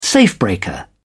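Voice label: "safebreaker", English, British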